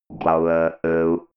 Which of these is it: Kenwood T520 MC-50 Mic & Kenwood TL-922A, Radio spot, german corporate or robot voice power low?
robot voice power low